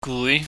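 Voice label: "gui", Cantonese, mid rising tone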